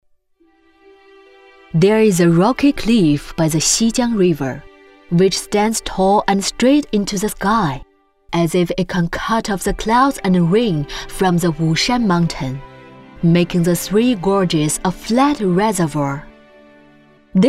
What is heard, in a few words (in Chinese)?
英文宣传片